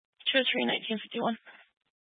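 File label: County Fire, Scanner audio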